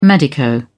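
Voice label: "medico", English, British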